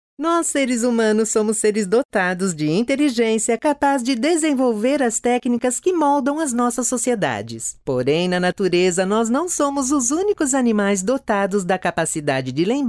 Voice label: portuguese brazilian narration

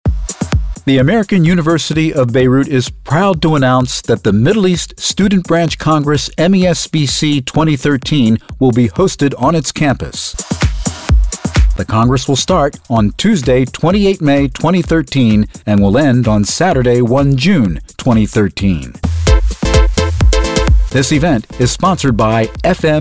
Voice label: english american promos

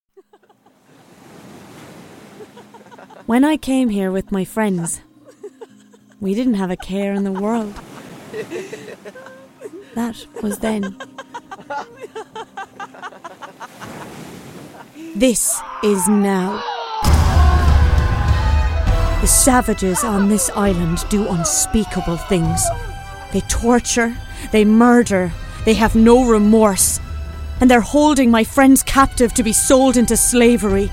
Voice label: English Irish video games

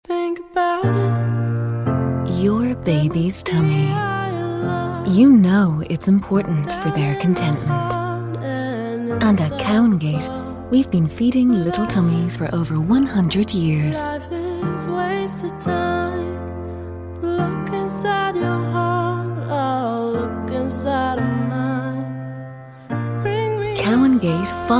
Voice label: english irish commercial; news anchor

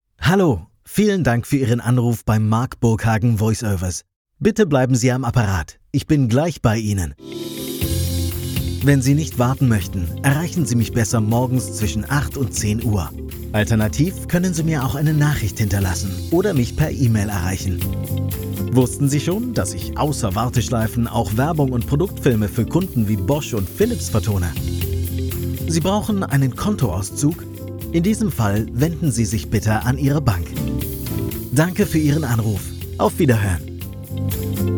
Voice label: german ivr

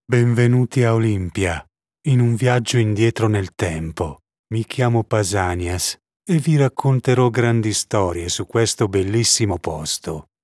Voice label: italian audio guides

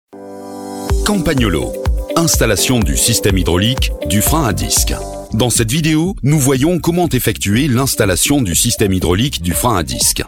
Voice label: french promos

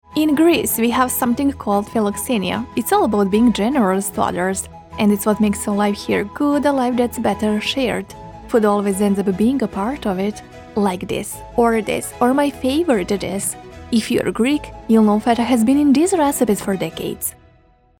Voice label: english tv shows; Serbian native voice-over actor from Belgrade

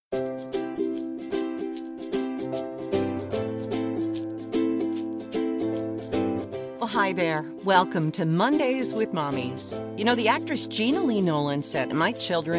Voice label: english canadian podcasts

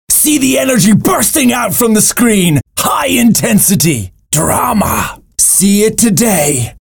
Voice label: english irish automotive

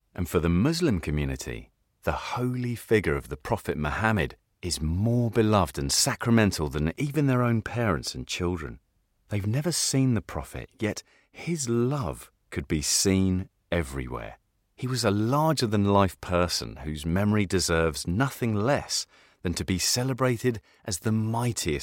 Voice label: english british narration

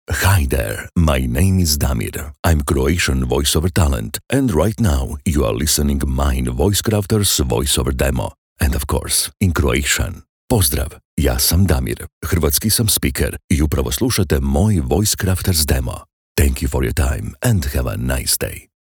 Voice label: Croatian commercial

